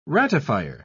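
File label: "ratifier", British English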